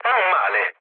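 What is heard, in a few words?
Italian trick mood low, speech; War Thunder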